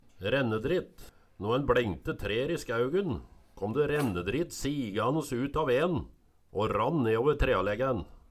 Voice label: rennedrit, Numedalsmål

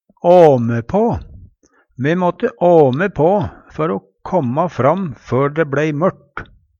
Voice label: "åme på", Numedalsmål; mase på, be fleire gonger, minne om

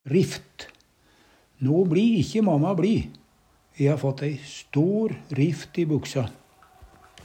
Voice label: rift, Numedalsmål; No bLi ikkje mama bLi! E ha fått æi stor rift i buksa.

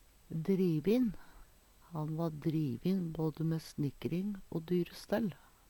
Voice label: "drivin", Numedalsmål; Adjektiv

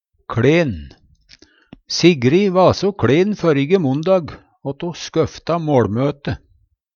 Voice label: kLen, Numedalsmål; Ho va so kLen førrige mondag åt ho måtte skøfte måLmøte.; Adjektiv

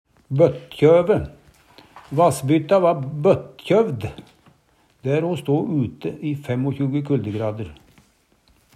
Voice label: bottkjove, Numedalsmål; Verb; Vêr og føre

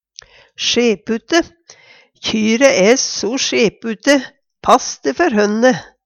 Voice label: "sjepute", Numedalsmål; Kjyre æ so sjepute. Pass de før hønne.; Adjektiv

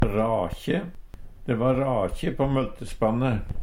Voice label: rakje, Numedalsmål; De va rakje på møltespanne.

